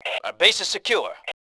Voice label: secure, male

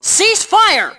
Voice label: ceasefire, Fem; Tribes2

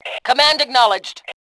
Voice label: acknowledge, Fem; Tribes2

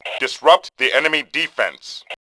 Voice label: distract, Male; Tribes2

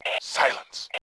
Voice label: quiet, Male; voice; Tribes2

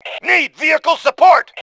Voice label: need support, male

